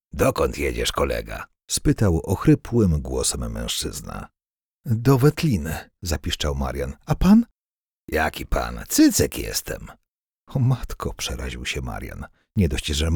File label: audiobook